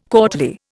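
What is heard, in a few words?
"courtly", Indian English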